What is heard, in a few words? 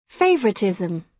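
"favoritism", British English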